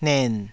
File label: "nen3", Taiwanese